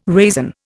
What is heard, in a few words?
"raisin", Indian